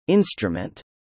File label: "instrument", spelling